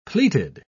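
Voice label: "pleated", British English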